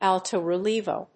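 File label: "alto-relievo", English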